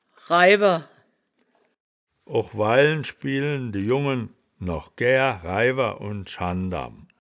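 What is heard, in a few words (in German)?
"raiwer", Wittlicher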